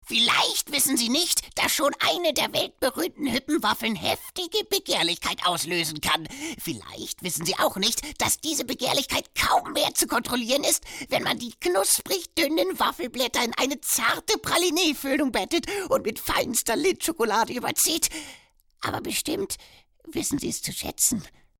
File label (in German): trickstimme